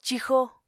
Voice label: "cixo", Apatani